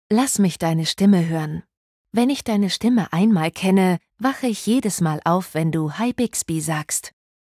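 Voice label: wake up ready German; Bixby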